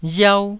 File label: "riau1", taiwanese